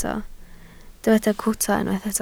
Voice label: "cwta", Welsh